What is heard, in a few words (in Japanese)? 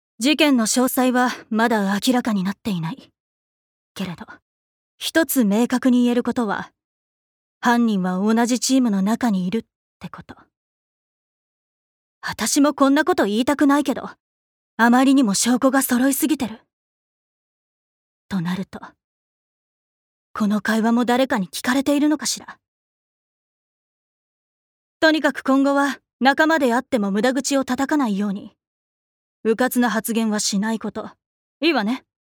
アニメ